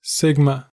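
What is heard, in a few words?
"sigma", American English; pronunciations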